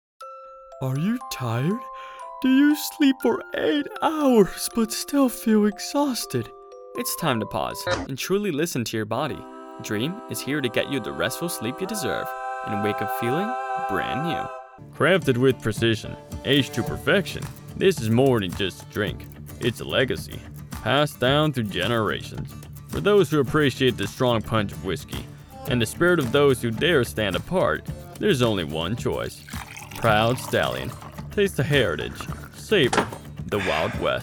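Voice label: English COMMERCIAL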